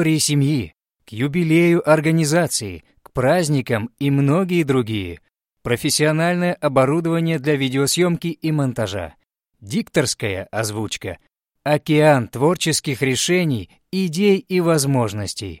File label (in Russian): Рекламный ролик; Милан